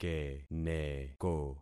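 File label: "keneko", concatenated syllables; Affixing experiment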